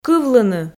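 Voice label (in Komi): "кывлыны", Komi, female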